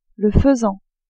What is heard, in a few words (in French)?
"faisan", french